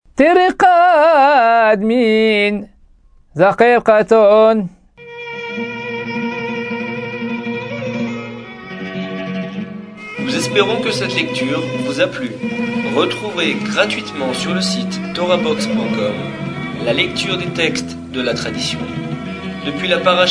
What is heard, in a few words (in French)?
apprentissage taamim selon le rite constantinois